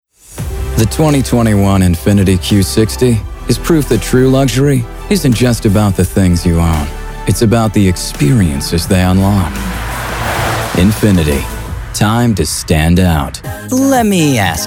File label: Commercial